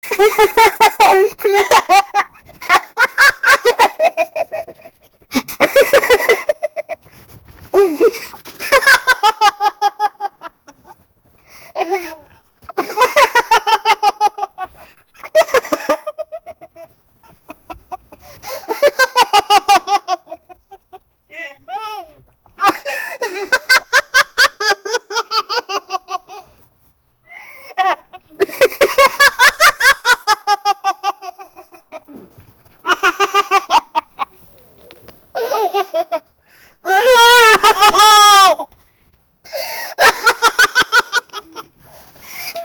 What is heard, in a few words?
a 25 year old, backyard